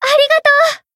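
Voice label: Voice healed, Japanese; tsukiha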